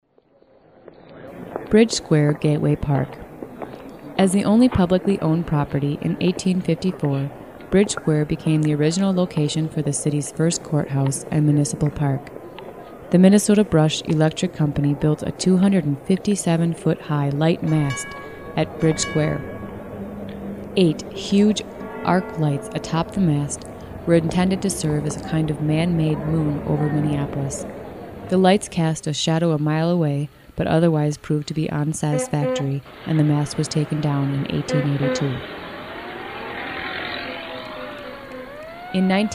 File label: narration